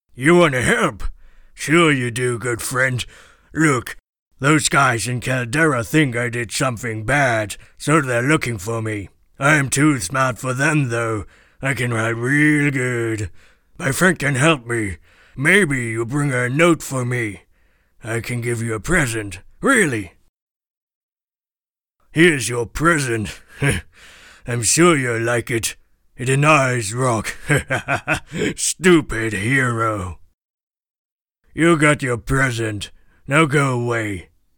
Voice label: orc audition

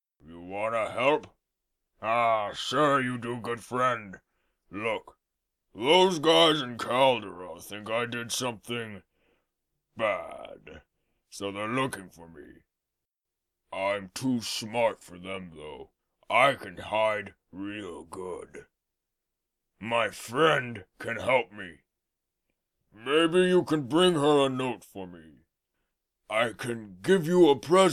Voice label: orc, voice auditions

